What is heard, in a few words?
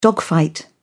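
"dogfight", British English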